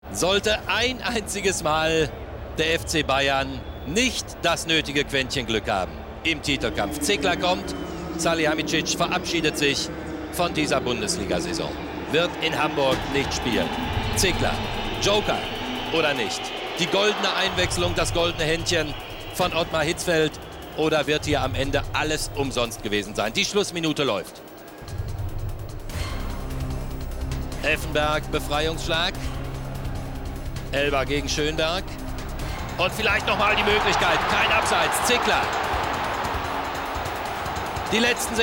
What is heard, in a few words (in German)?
Sportkommentar